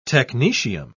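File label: "technetium", British English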